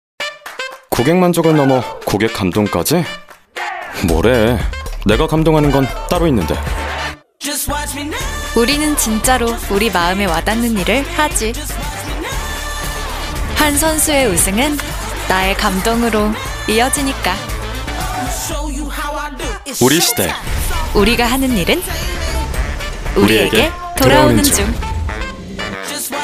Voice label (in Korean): 광고 TVC; 우리금융